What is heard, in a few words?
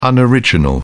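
"unoriginal", British English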